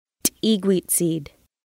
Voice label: thank you, Lushootseed; Basic Conversation Phrases